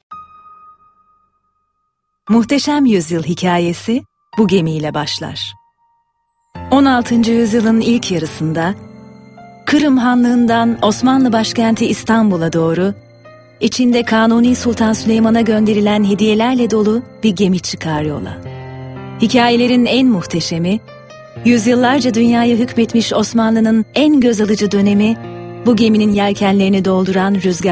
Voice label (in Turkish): belgesel tarihi, seslendirme